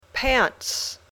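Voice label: "pants", English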